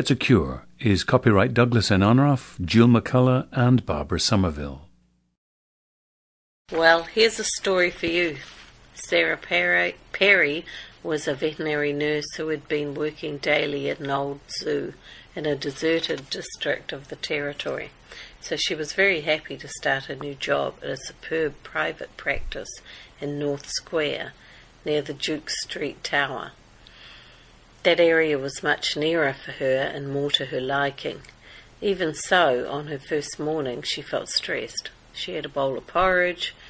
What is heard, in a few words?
New Zealand